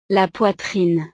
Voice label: "la poitrine", frances; partes del cuerpo

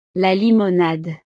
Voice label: "la limonade", frances; snacks

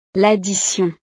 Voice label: "l addition", frances

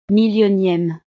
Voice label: "millionieme", frances

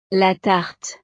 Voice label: "la tarte", frances; snacks

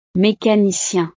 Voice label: "mecanicien", frances; profesiones

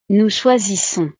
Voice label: "nous choisissons", frances

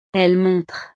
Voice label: "elle montre", frances

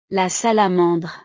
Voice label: "la salamandre", frances

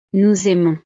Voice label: "nous aimons", frances

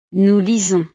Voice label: "nous lisons", frances

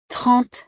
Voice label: "trente", frances

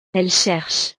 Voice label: "elles cherchent", frances

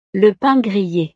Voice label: "le pain grillé", frances; La tostada; desayuno

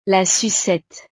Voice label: "la sucette", frances; snacks

